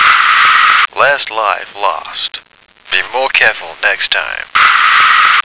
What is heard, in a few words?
"Last Life Lost", American